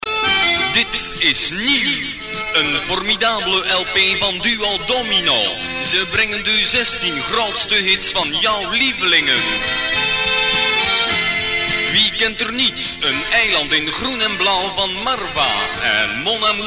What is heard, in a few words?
commercial